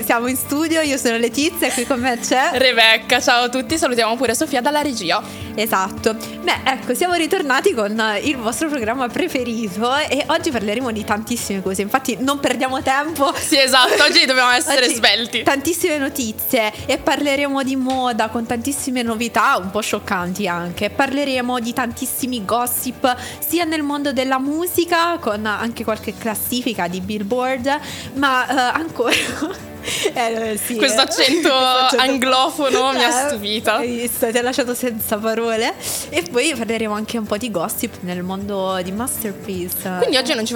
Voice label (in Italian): voice over; podcast; moda musica e masterpiece